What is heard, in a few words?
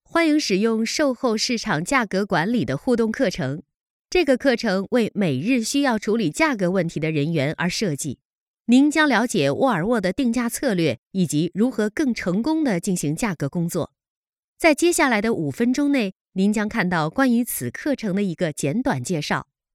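Chinese mandarin, female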